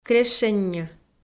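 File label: "крещенньӧ", Komi, female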